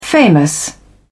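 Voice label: "famous", British English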